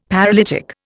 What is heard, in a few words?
"paralytic", Indian; Dictionary